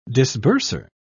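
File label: "disburser", British English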